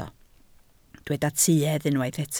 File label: "tuedd", Welsh